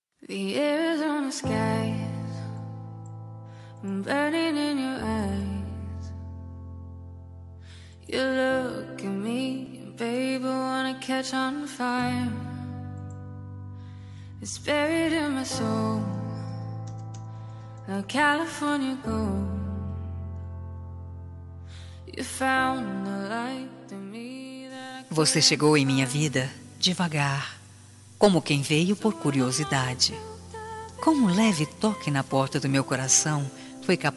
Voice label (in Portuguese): romântica, Telemensagem Romântica – Voz Feminina